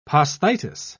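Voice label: "posthitis", British English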